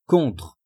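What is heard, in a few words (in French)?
"contre", French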